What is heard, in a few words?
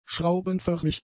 "schraubenförmig", German; adjectives